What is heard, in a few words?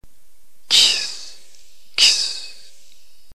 xsi, ntgreek alphabet phoneme